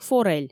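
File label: "форель" Komi, female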